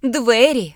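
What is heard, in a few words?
door, Ukrainian; gcompris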